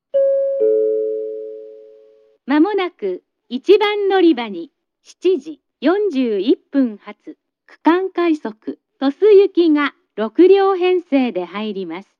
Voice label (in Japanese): announce; jrkyushu; tosu